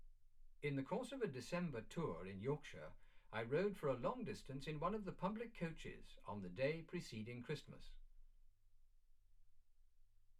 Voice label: male speech english